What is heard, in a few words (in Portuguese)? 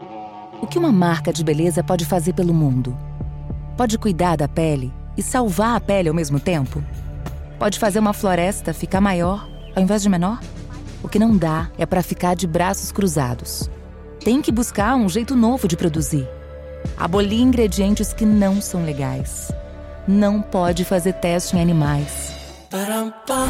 Loc Natural Séria Locutora